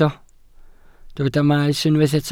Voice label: "maes", Welsh